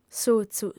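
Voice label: "sotzu", female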